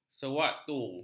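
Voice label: "sewaktu", Malay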